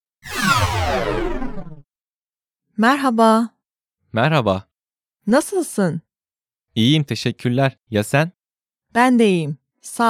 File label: dialog, turkish; turkishclass101